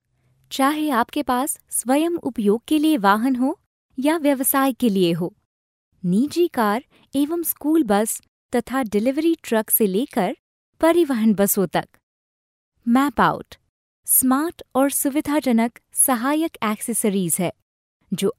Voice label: female hindi voice over narrative

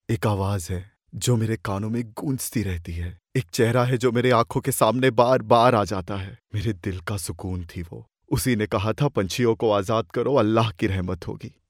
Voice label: male hindi voice over character

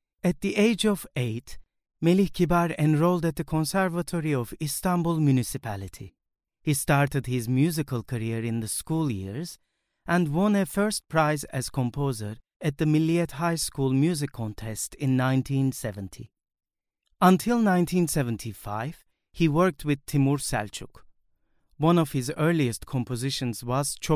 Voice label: male turkish voice over talent english

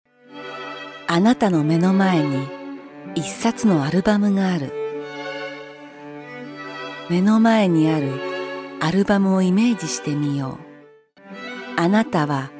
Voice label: female japanese voice over sales

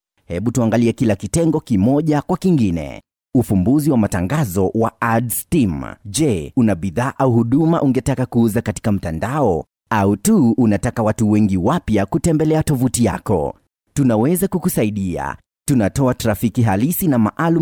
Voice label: male swahili voice over narrative

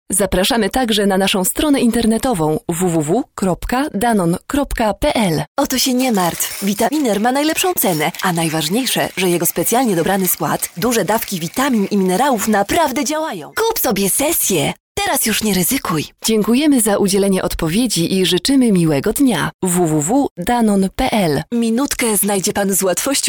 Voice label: female polish voice over sales

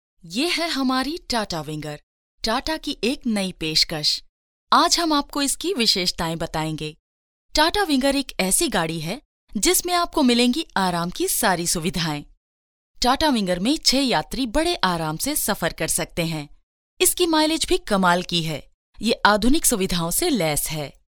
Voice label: female hindi voice over narrative

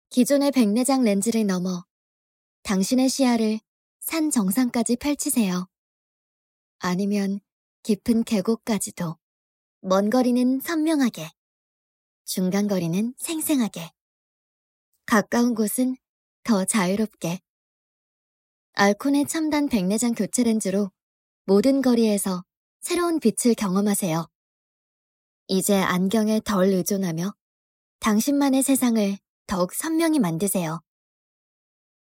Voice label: female korean voice over narrative

